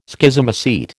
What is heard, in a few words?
"Schizomycete", American